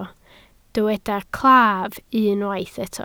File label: "claf", Welsh